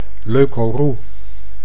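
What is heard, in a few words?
"leukorroe", Dutch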